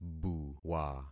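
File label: "buwa", concatenated syllables; Affixing experiment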